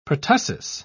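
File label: "pertussis", British English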